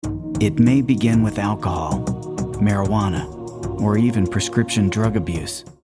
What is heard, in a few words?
concerned